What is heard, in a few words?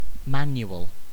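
"manual", British English; pronunciations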